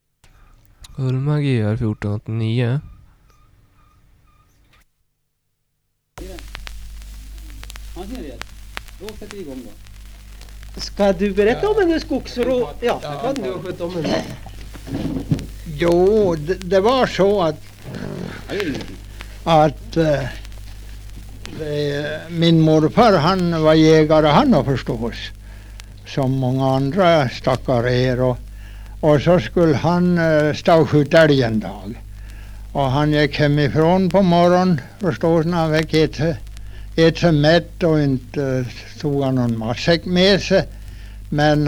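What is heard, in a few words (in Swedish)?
Huddunge Uppland, dialekter; Svenska sagor; Folkminnen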